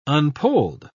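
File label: "unpolled", British English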